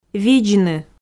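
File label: "видзны", Komi, female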